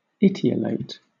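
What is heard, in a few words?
"etiolate", British English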